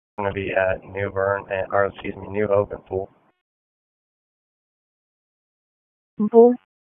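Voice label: Police Downtown District Dispatch, p25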